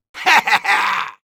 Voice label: battlecry; Soldier